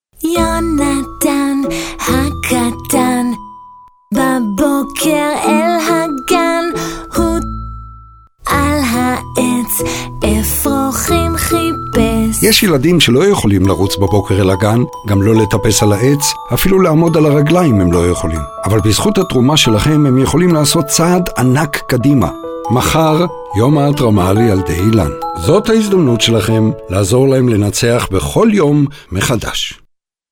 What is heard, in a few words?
Radio